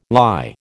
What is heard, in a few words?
"lye", American